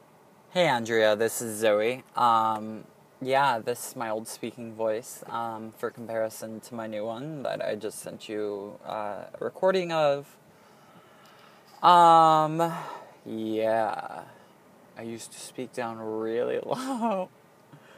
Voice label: Old Voice